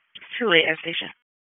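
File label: EMS Dispatch, p25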